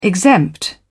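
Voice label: "exempt", British English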